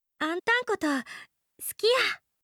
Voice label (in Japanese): 好きです 和歌山; 千早